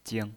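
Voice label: "jing", neutral tone, pinyin voice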